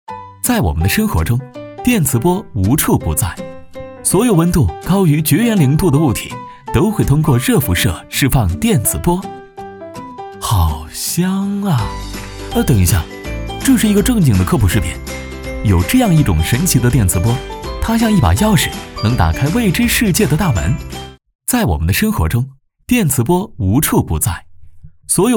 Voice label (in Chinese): 男 MG